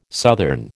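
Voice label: "southern", American English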